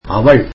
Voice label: tamil she